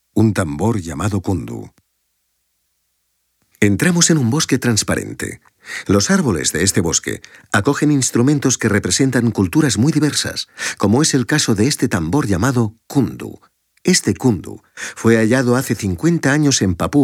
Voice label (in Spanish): audioguia; delamusica espanyol